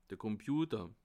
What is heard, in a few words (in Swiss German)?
"komputer", alsace